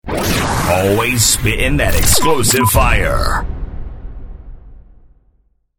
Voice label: Spittin Fire Dj Drop; Dj Drops 24 7